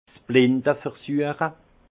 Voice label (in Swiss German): "blinde", alsace; vin; dégustation à l'aveuglette